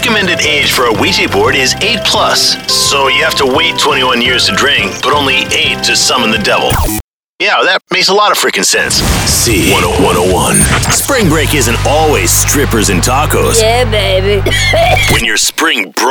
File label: radio imaging men rock